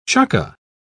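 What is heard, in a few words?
"chukka", British English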